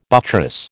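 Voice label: "buttress", American English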